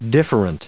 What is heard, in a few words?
"different", en us